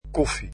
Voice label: coffee, dutch